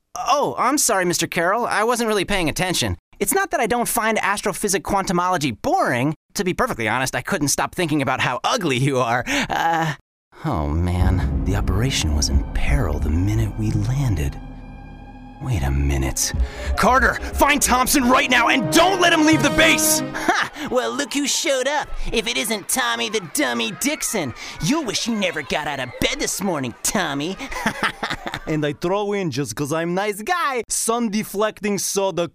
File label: Animation; demoreel